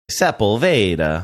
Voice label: mimicking how gps systems incorrectly pronounce sepulveda